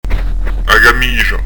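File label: "a camisgia", Prononciation du corse; a gam'iƷa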